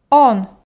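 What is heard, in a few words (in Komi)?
"он", Komi, female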